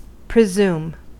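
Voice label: "presume", American English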